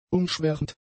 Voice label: "umschwärmt", German; adjectives